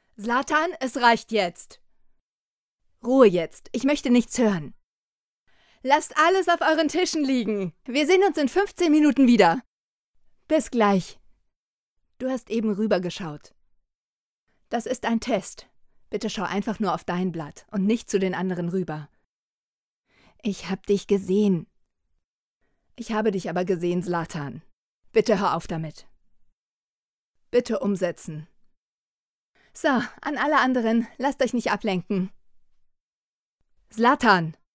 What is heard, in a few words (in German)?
synchron; zlatan